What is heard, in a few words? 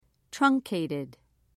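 "truncated", British English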